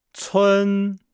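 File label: "cun", Chinese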